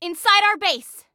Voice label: Warn Enemy Inside Our Base, Female; Female 02